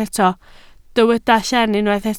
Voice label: "lleen", Welsh